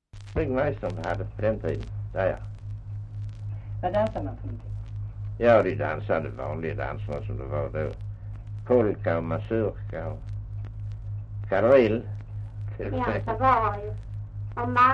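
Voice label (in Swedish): Östra Ingelstad Skåne, dialekter; Folkminnen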